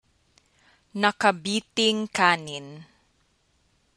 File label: tagalog